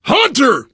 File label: warn hunter, biker, survivor voice; Left 4 Dead 2